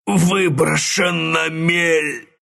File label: death ru; Dota 2